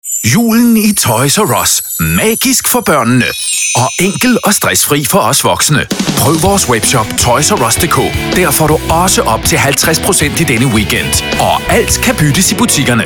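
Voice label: comercial, Danish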